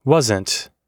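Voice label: "wasn't", American English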